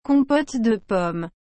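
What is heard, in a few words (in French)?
"compote de pommes", French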